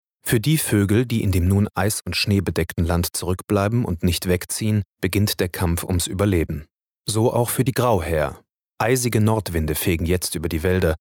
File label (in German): doku; Demo Hörbuch: 1. "Die Mondspielerin" von Nina George, 2. "Alte Meister" von Thomas Bernhar